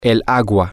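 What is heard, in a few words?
the water, spanish, male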